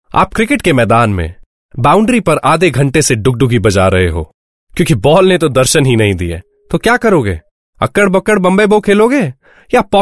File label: Hindi, male voice